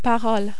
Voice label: "parole", French

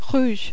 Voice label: "rouge", French

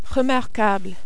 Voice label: "remarquable", French

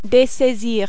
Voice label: "dessaisir", French

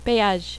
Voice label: "peage", French

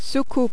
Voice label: "soucoupe", French

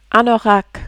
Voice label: "anorak", French

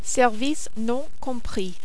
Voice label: "service non compris", French

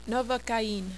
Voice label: "novocaine", French

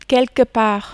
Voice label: "quelque part", French

